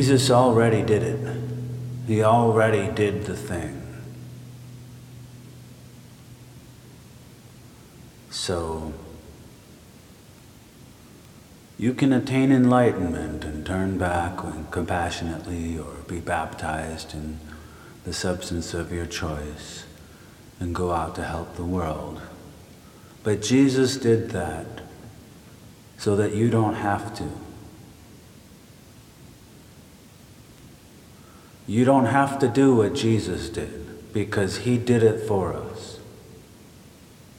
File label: street preaching; Jerusalem